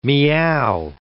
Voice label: "miaow", English